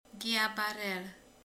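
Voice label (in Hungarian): "énekel", Hungarian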